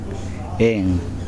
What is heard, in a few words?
air, Kosraean